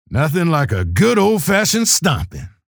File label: chatter neutral; keel